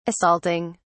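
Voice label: "assaulting", British English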